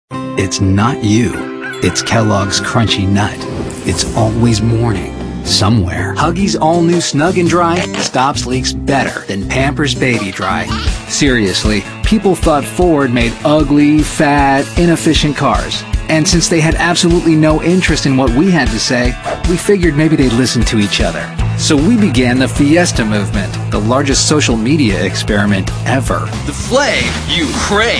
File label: male, mid30s-mid40s, warm